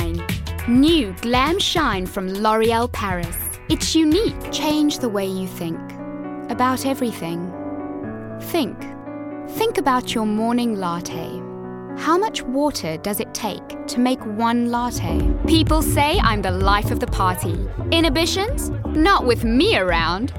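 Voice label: English South African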